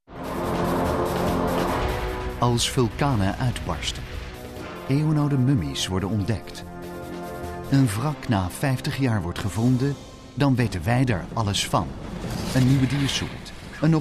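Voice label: Dutch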